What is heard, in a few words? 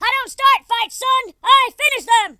"fireball", Drill Sergeant; speech